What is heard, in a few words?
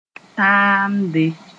"taamde", moore; Shea fruit